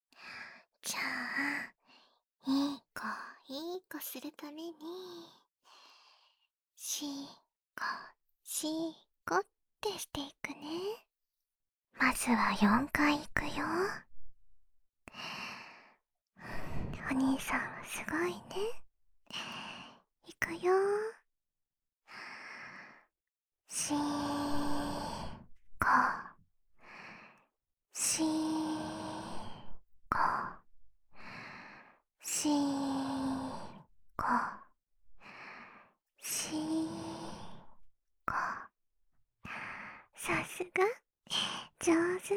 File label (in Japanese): 優しく励ましてくれる音声; 【優しいお姉さん2】